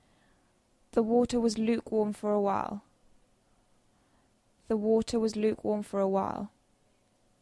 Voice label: Dictation; phonology